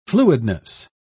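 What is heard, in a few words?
"fluidness", British English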